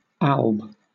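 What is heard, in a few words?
"alb" British English; pronunciations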